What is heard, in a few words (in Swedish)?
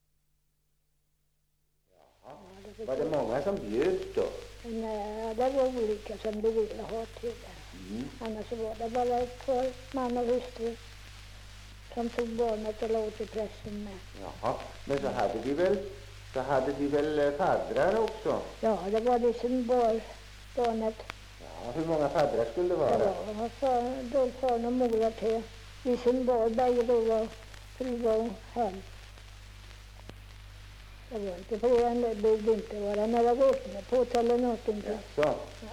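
Blåvik Östergötland, dialekter; Folkminnen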